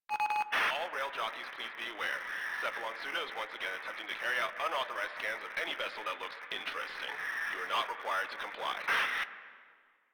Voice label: Announcements, Radio Interceptions